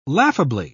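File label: "laughably", British English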